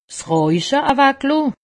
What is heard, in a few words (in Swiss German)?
"rauche", alsace; medecine